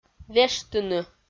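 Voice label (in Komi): "вештыны", Komi, female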